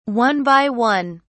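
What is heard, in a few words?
"one by one", English, British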